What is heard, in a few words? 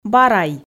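"бара-й", Komi, female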